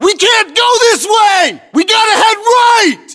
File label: voiceovers, US; localized english